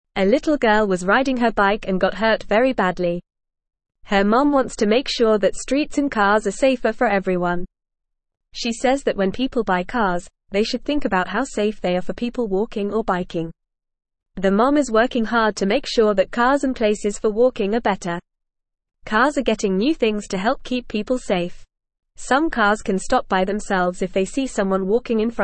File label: English Newsroom Beginner FAST Reading; Mom Works to Make Streets Safer for Everyone